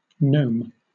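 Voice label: "gnome", British English; pronunciations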